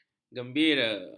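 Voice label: "gembira", Malay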